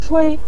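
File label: "chui" neutral tone, Mandarin Chinese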